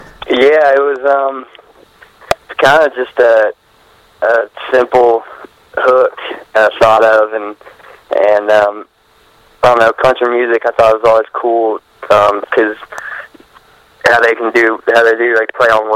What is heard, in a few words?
interview excerpt; Tucker Beathard